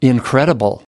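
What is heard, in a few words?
"incredible", US English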